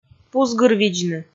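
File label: "пузгырвидзны", Komi, female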